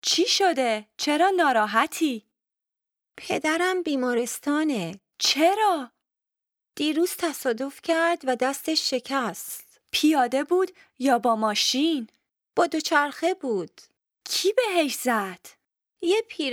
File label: Dialogue lesson Farsi